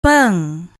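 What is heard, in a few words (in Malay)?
"peng", Malay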